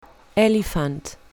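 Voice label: "elephant", spoken word, english vocabulario wild animals; colour your english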